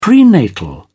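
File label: "prenatal", British English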